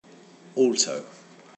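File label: "alto", British, male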